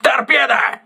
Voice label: voice message torpedo, crew ships russian